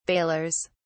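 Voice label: "balers", British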